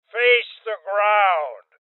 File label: Order To Surrender Male Old; rebel cops 2019; Voices